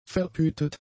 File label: "verhütet", German; adjectives